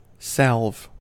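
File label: "salve", American English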